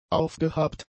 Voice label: "aufgehabt", German; adjectives